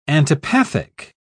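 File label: "antipathic", British English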